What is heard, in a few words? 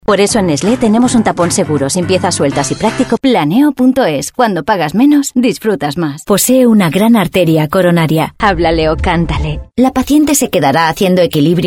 spanish narration